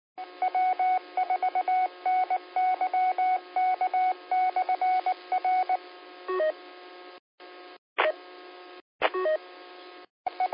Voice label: qso rec simplex logic